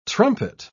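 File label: "trumpet", English pronunciation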